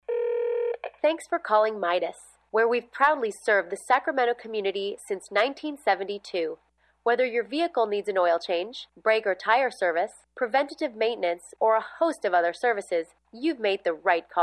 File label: informative